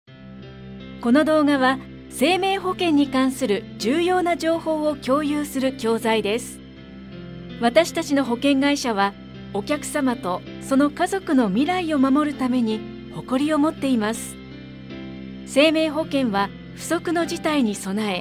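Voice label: japanese corporate videos, Femelle; Vidéos d'entreprise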